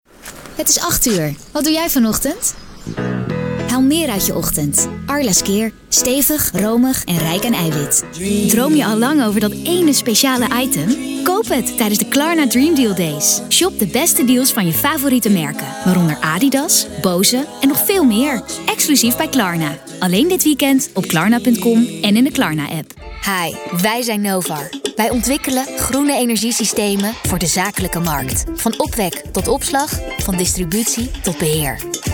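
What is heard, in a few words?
Dutch commercial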